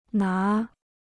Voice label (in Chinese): "拿", Chinese